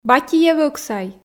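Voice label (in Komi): батиев ӧксай, Komi, female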